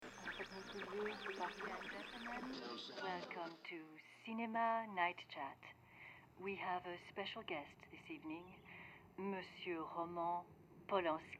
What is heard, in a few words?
Haunting Voice Over; Charles Manson